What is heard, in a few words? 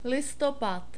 "listopad", czech